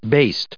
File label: "baste", en us